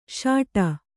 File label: "ಸಟ್ಟಾ", Kannada